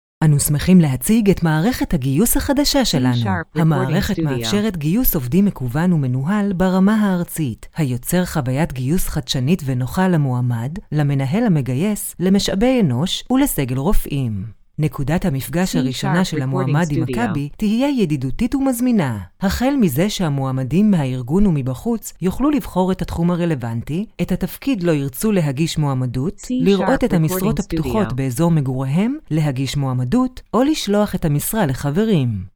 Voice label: Hebrew Female